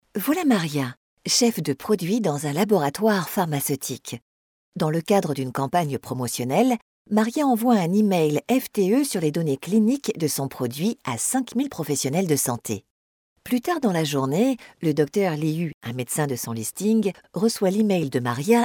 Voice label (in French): E-Learning Solution Entreprise; voix off